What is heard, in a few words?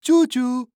Cartoon Voice Choo Choo